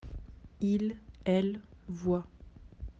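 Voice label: "voir", French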